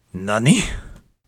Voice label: "Nani" What